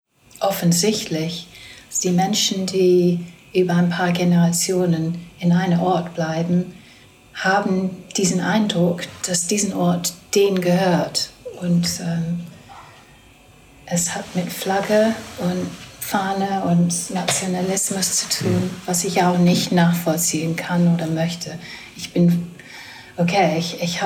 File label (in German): englisch deutsch